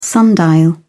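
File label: "sundial", English, uk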